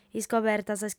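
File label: "iscoberta", Sardinian, female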